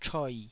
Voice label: "choi3", taiwanese syllable